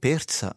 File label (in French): "persa", Rocca (sud)